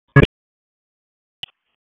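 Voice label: EMS Dispatch; wake; p25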